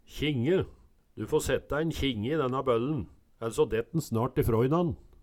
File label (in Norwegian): kjinge, Numedalsmål